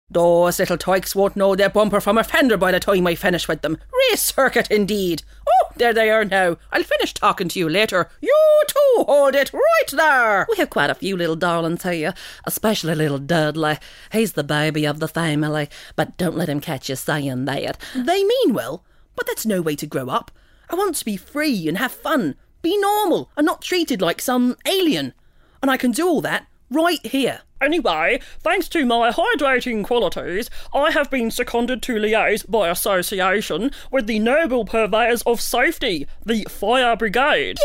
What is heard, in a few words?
characters for animation pilot